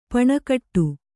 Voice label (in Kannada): "ಪೆಣಗಾಟ", Kannada